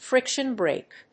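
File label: "friction brake", English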